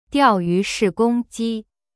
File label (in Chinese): "钓鱼式攻击" Chinese